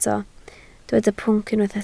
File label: "pwnc", Welsh